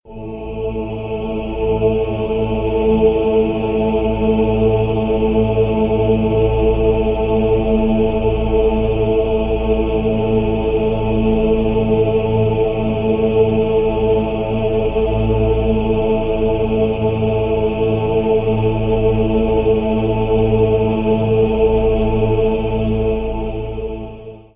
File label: voice over ringtone